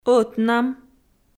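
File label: "ӧтнам" Komi, female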